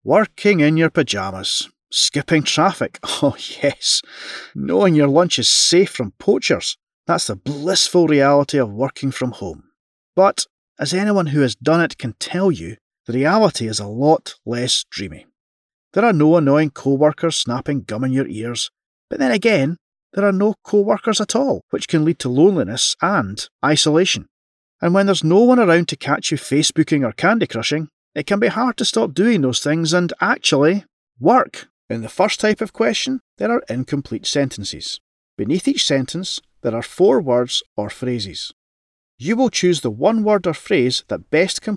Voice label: E learning showreel